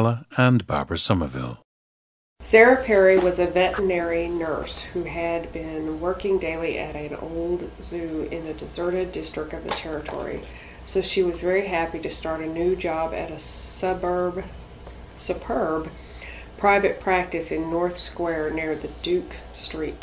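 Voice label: texas, International Dialects of English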